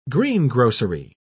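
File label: "greengrocery", British English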